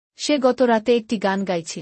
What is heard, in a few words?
She was singing a song last night. Bangla; Mixed Sentences